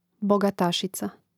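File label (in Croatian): "bogatasica", Hrvatski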